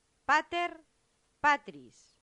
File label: "pater patris", latin; santillana